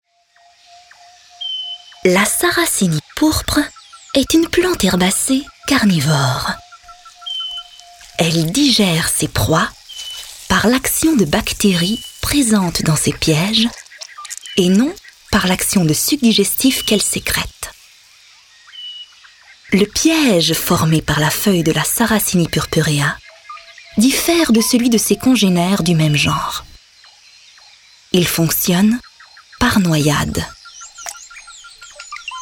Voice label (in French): Narration